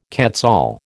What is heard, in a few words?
"quetzal", American